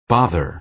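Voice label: "bother", American English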